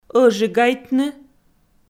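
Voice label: "ӧжигайтны", Komi-Zyrian, female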